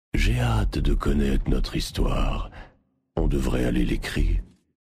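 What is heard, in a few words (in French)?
French Male Flirt; EcrireHistoire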